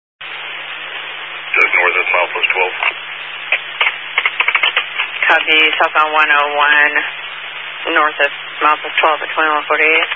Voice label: Highway Patrol, Phoenix Metro West Area, scanner